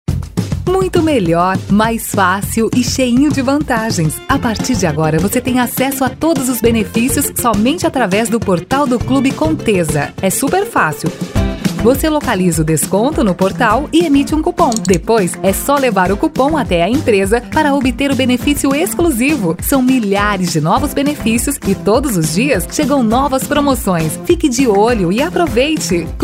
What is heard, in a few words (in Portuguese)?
JOVEM